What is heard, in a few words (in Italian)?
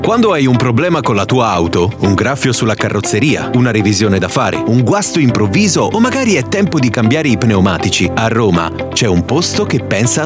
spot; demo voci pubblicita radiofonica